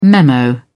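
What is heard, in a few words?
"memo", British English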